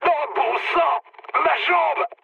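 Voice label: damaged pilot, mood low, French; War Thunder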